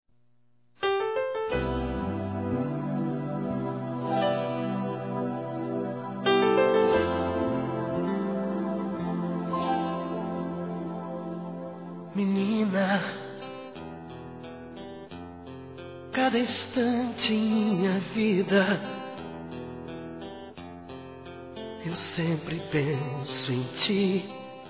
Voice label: Aniversário Sertanejo Romantico Masculino

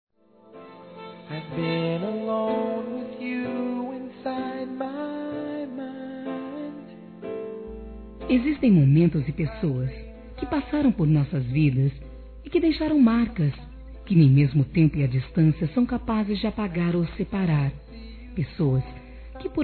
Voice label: Ex Namorado Feminino; Aniversários; Aniversários diversos

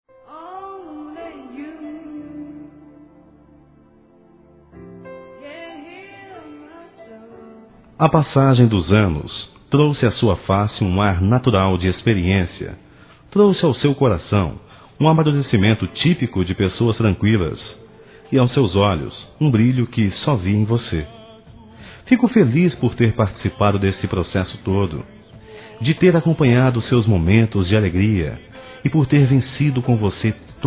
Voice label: Aniversário Avó Masculino

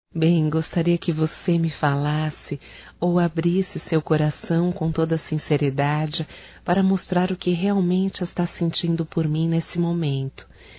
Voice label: ROMANTICA(F), Românticas, fem

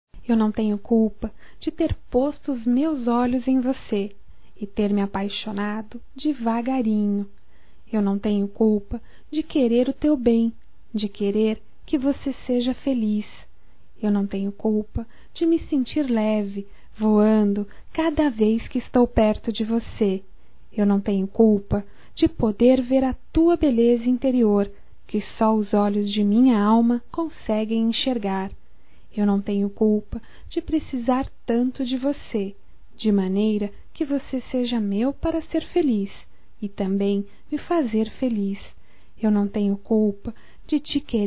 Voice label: TE QUERO (F); Românticas

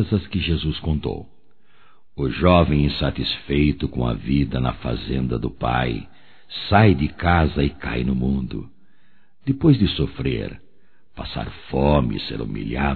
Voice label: Aniversário Filho Masculino; Aniversarios; Telemensagens 2017

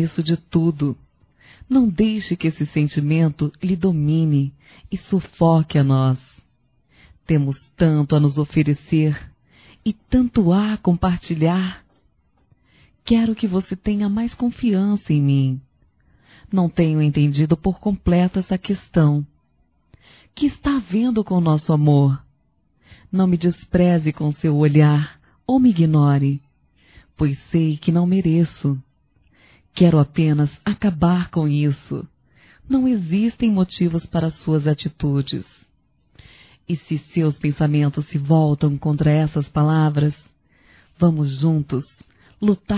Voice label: Ciúmes Feminino; Telemensagens 2017

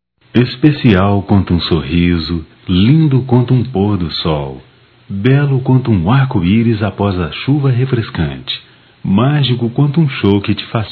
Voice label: Mãe consideração m; Telemensagens 2017; Dia das Maes

